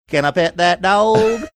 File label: saying can i pet dog funny